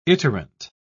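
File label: "iterant", British English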